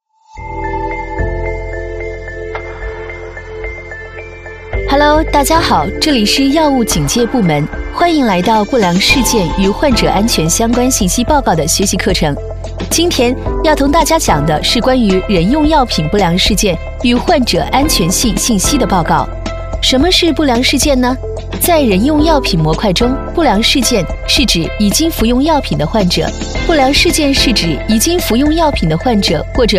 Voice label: 多媒体 培训课件 医药类专业

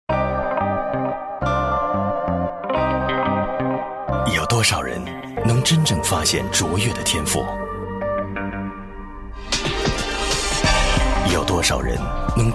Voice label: 广告 酒类; 马爹利蓝带

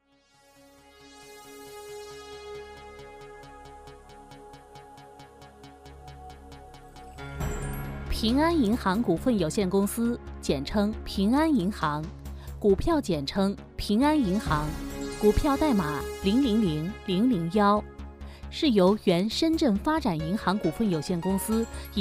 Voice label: 专题 银行 亲切; 平安银行

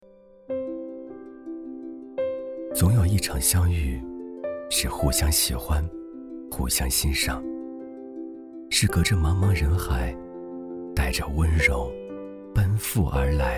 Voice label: 其他 旁白 电台心灵鸡汤文章, 男

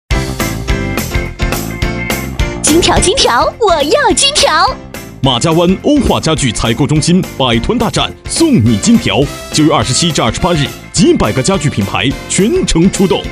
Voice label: 广告 促销 欧化家具 活力, 女国

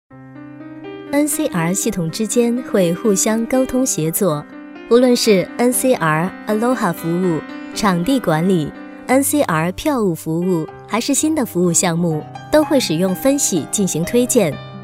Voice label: 多媒体 产品演示 系统介绍; NCR

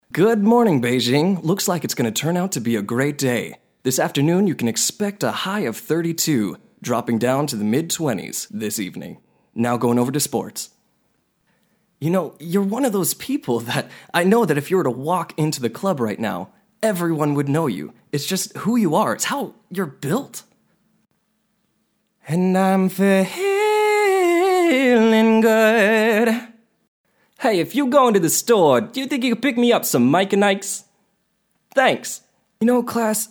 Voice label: 美式英语 生动活泼 年轻, 外籍男英; 外语

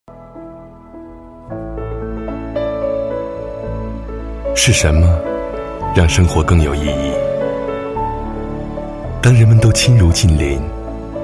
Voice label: 广告 品牌形象 优雅; 腾讯

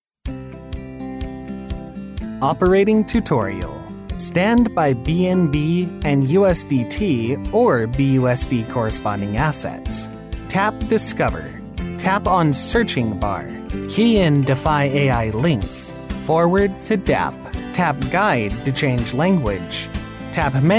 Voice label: 美式英语 轻松, 外籍男英; 外语

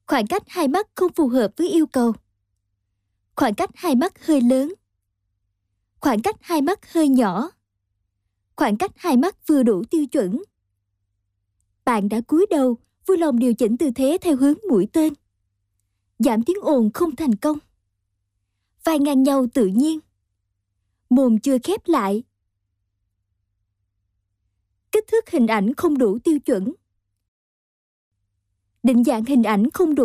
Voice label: 越南语女, 外语, 小语种, 年轻

